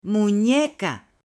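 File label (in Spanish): "muñeca", Spanish, female; JUGUETES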